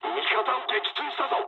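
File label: Japanese player killed ally mood low; War Thunder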